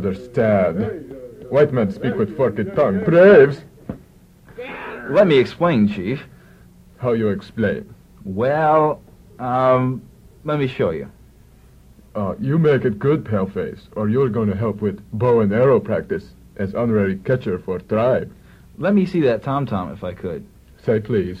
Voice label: promo disco dance